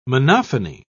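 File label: "monophony", British English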